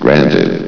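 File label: "granted", male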